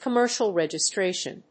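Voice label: "commercial registration", English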